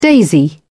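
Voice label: "daisy", British English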